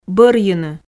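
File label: "бӧрйыны", Komi, female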